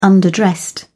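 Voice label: "underdressed", British English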